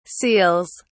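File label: "seals", British English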